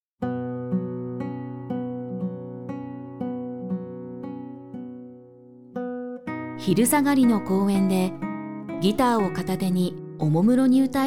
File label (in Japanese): しっとりナレーション